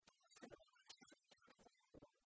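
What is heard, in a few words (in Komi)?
"тулысын лэбачьяс лэбзьӧны войвылӧ", Komi, female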